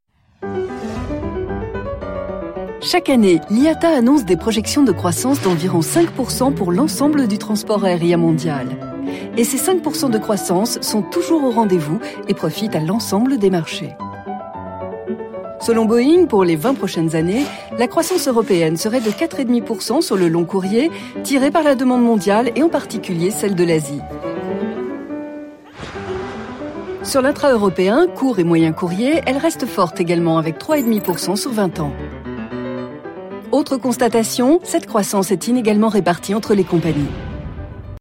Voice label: Institutionnel; Air France

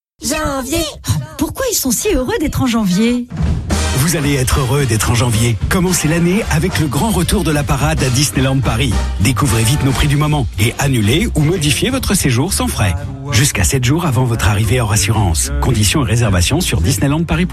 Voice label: Pub Radio; Disneyland

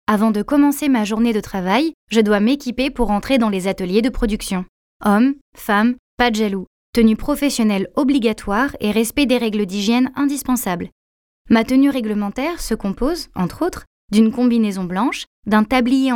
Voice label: voix off tenue pro; chambre commerce bretagne

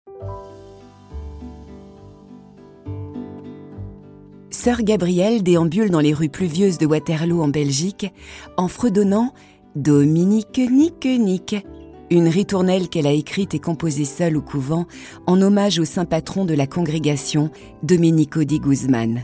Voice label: comedienne voix off podcast

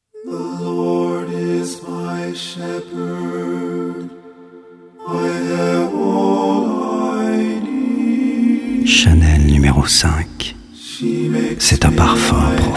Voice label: voix grave; chanel nescafe heineken

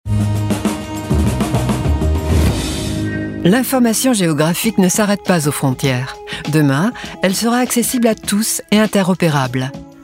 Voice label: film institutionnel; IGN

